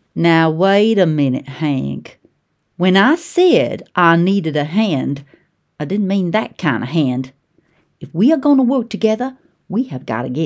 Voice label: COWGIRL, Voice Over